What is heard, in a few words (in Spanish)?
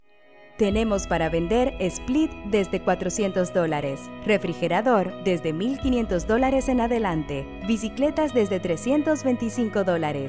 On hold para vender